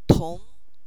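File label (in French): "nv tong", Chinese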